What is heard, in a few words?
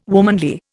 "womanly", Indian